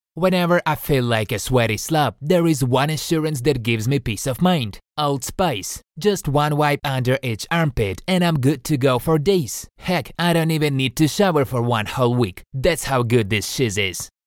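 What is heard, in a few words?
English, Commercial, English Accented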